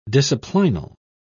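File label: "disciplinal", English, British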